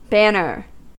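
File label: "banner", US English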